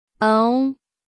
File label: Phoneme, Female; Commonscript; Lynguistykon